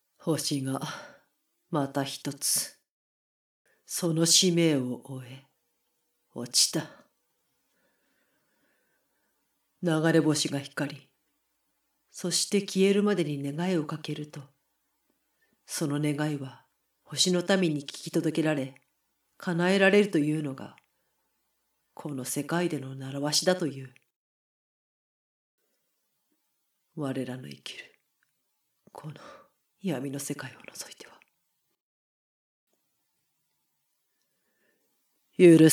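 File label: sample narration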